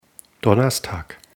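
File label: "donnerstag", German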